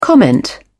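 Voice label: "comment", English, British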